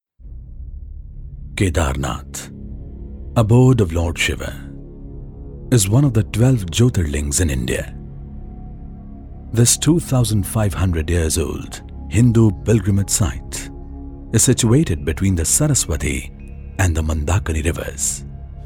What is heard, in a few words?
Documentary